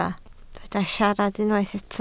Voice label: "siarad", Welsh